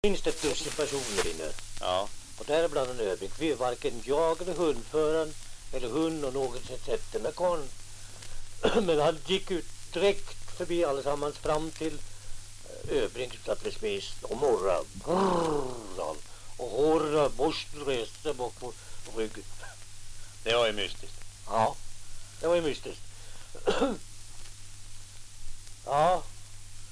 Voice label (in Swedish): dialekter; Folkminnen